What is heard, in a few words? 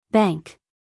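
"bank", American English, female; everyone-can-use-english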